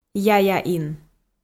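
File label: "яяин", Komi, female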